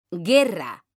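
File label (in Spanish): "guerra", Spanish, female; mekanta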